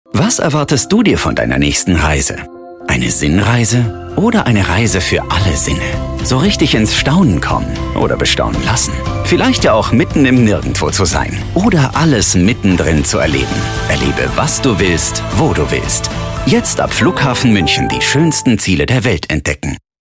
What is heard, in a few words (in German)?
Werbung; Flughafen Muenchen